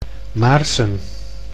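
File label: "Maarssen", Dutch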